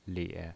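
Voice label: "lie", pinyin, Mandarin Chinese, third tone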